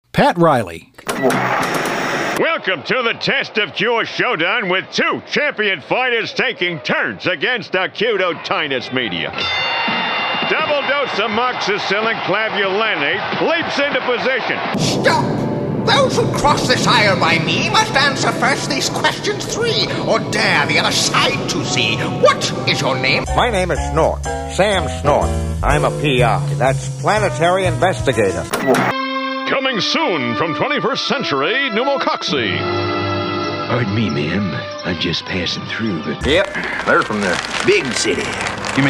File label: male; Spotland Productions